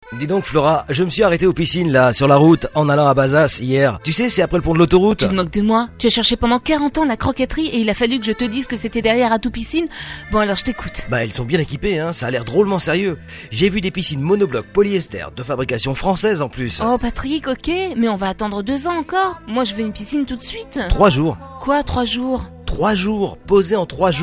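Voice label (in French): spot, spots radio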